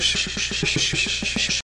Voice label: postalveolars plain, romanian palatalization